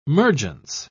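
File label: "mergence", British English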